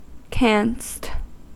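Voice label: "canst" English, American accent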